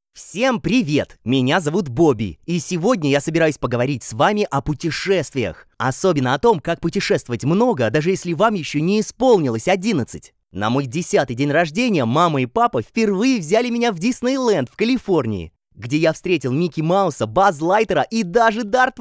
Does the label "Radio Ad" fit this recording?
No